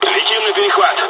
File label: dialog, dialogs russian; War Thunder